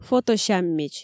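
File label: "фотосяммич" Komi, female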